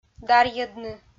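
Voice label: "дарйӧдны", Komi, female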